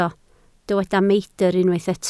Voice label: "meidr", Welsh